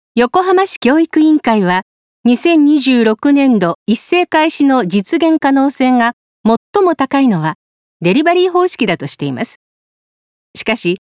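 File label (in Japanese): 広報ナレーション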